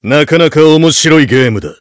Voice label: heavy battlecry

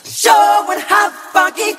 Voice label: spy taunts, voice packs; spy jackson

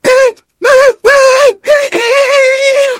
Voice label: negative vocalization

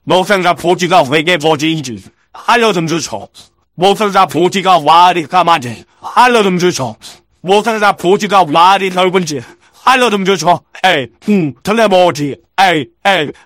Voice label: scout cheers

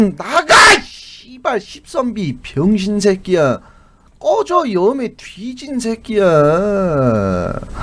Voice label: scout jeers

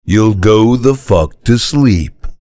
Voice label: soldier spell generic

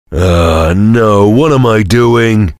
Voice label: soldier jeers

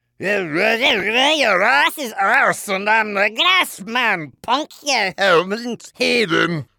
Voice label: battlecry; demoman

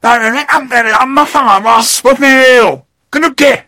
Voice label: negative vocalization; sniper

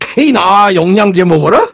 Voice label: jeers

